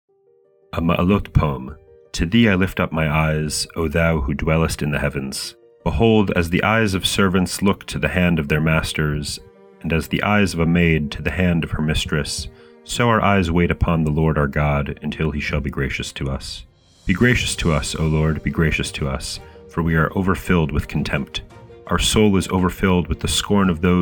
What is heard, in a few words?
poetry and prayer psalm english